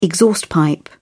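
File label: "exhaust pipe", British English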